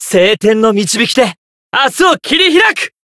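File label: Voice zodiac burst, Japanese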